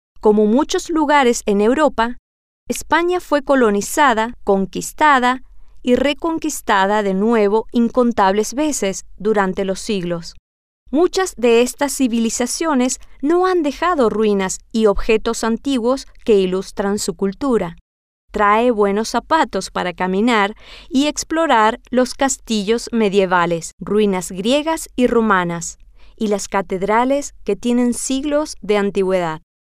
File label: spanish; un Poco De Historia